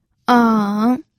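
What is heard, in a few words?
"eng", Mandarin Chinese, third tone; pinyin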